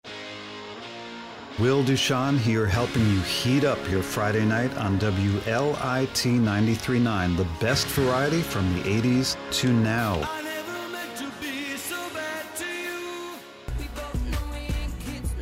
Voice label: On Air Commercial